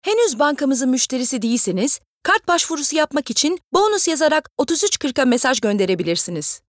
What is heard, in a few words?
IVR